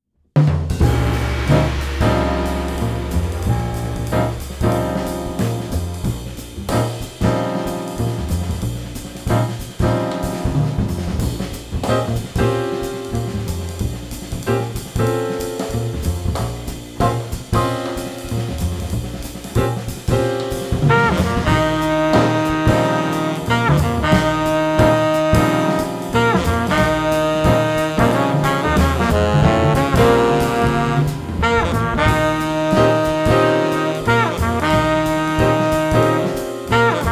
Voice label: announcement